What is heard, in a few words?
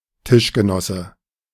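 "Tischgenosse", German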